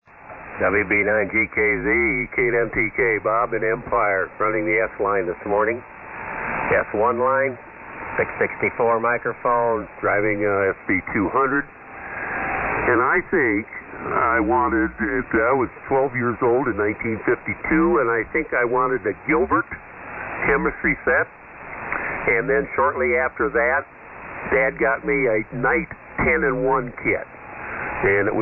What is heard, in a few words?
Collins 32S-1 75S-1 664 Mic & SB-200 Amp; Empire,MI.